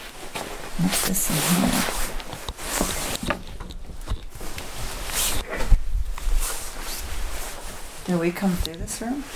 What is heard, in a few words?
male says shit